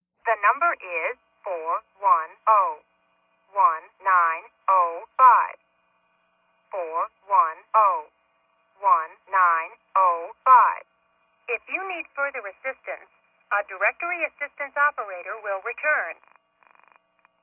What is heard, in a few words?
Telephone directory assistance, futz messages